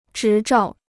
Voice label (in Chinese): "执照", Chinese